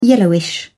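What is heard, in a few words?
"yellowish", British English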